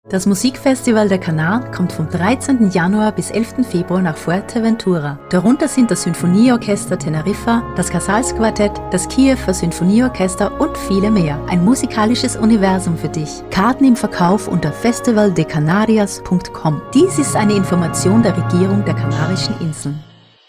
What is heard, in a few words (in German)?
Spot; Musikfestival Kanaren